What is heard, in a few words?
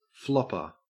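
"flopper", Australian English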